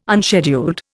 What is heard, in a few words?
"unscheduled", Indian